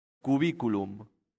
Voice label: "cubiculum", latin; santillana

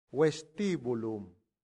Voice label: "vestibulum", latin; santillana

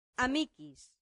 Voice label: "amicis", latin; santillana

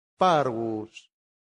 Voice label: "parvus", Latin; santillana

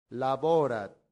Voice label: "laborat", latin; santillana

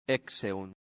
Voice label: "exeunt", latin; santillana

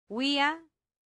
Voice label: "via", latin; santillana

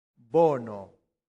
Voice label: "bono", latin; santillana

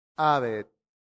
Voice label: "habet", latin; santillana

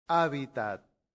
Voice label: "habitat", latin; santillana

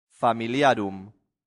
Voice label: "familiarum", latin; santillana

